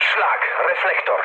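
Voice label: searchlite, mood low, Polish speech; War Thunder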